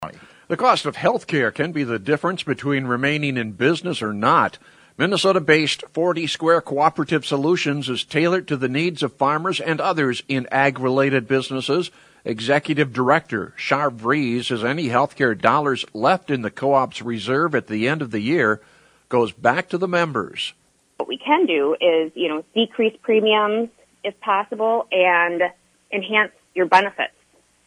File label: air check